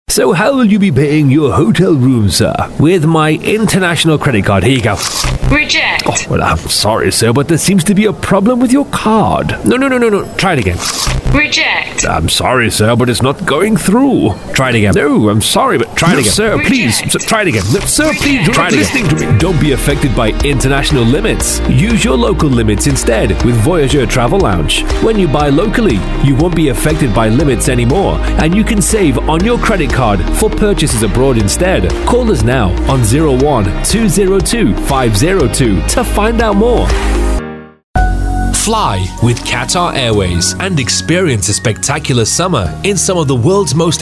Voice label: english british commercial

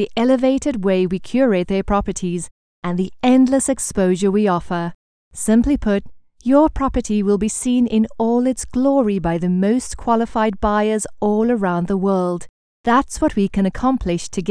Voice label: english international real estate; Synchronsprecher aus Südafrika

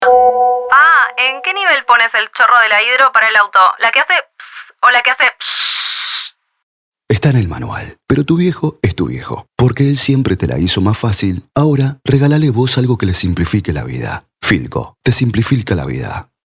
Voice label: spanish argentinian radio imaging, voice actors

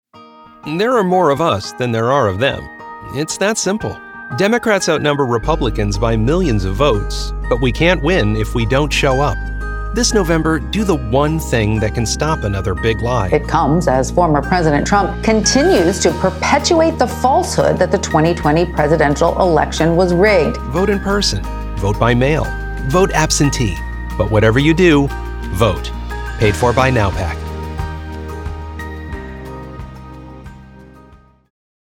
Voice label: english american political ads

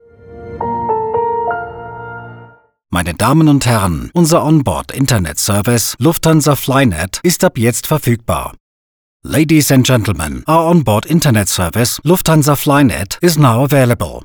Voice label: german announcements; Vereinigte Staaten; Deutsch

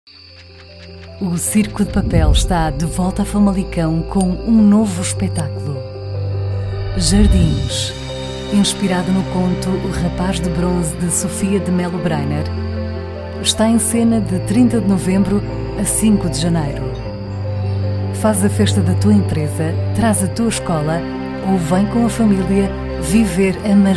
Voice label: portuguese promos

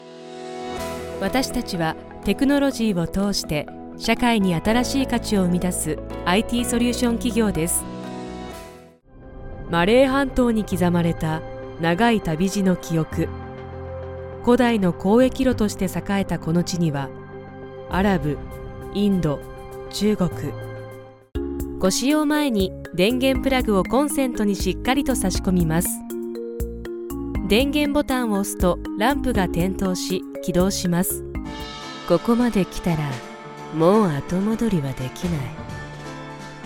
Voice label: japanese commercial

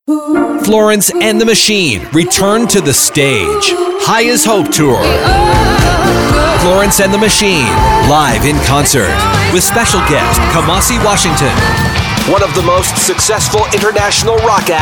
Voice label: english canadian others

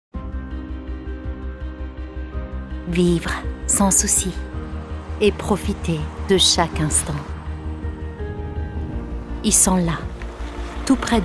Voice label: french others

